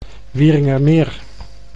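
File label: "Wieringermeer", Dutch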